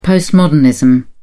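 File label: "postmodernism", British English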